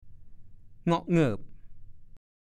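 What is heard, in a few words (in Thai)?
"เง่าะเหงิบ" ภาษาโคราช; ดูคำว่า กะด๊กกะเดื่อง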